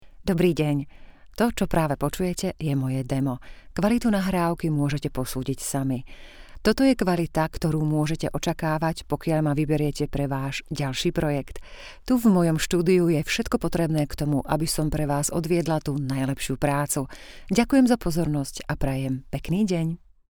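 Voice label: Slovak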